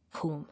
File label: "Whom", English